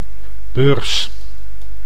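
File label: "beurs", Dutch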